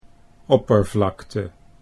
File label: "oppervlakte", Dutch